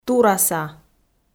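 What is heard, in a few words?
"тураса", Komi, female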